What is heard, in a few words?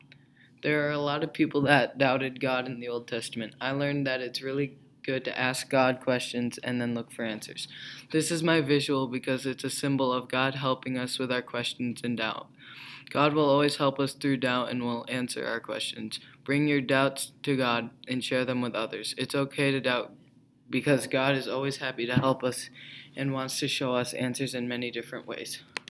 Narration Vocal